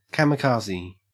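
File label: "kamikaze", Australian English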